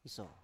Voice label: "iso", Biak